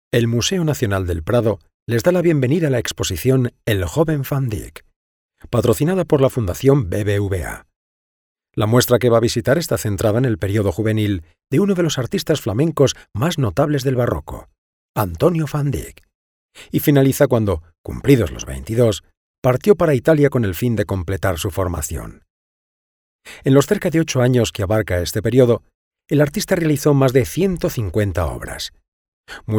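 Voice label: Spanish, male, narration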